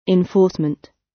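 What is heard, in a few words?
"enforcement", British; Crime and punishment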